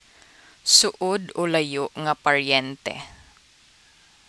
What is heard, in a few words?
Cebuano